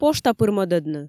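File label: "пошта пыр мӧдӧдны", Komi, female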